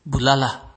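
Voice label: "bulala", Nigerian Pidgin, male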